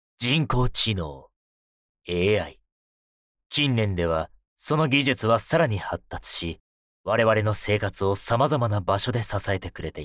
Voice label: male